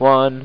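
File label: "One", English; 99 Bottles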